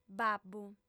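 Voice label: "babbu", female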